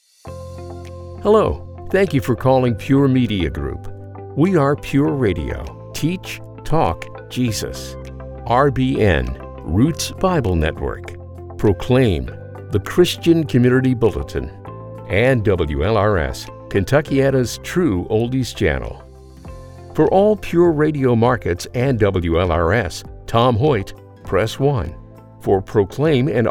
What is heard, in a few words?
Message On Hold